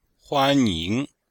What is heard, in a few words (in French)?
"huan1ying2", chinois; Cours de Chinois; Leçon1